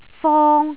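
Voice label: "fong1", Cantonese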